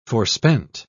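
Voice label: "forspent", English, British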